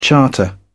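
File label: "charter", British English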